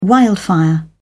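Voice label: "wildfire", British English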